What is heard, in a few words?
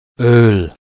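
"oel", German; de; tdeedu; klettres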